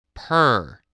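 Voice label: "purr", English word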